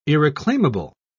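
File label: "irreclaimable", British English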